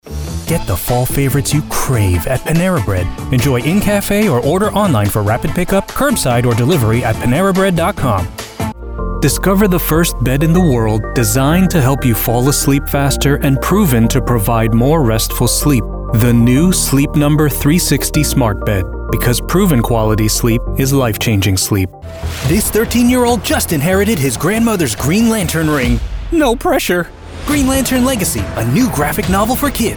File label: tv youtube instagram social media ad commercial male voice over